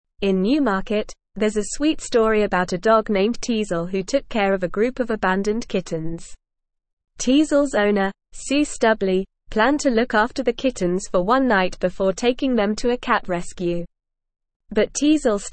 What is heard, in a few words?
English Upper Intermediate NORMAL Reading; Dog Becomes Surrogate Mother to Abandoned Kittens; English Newsroom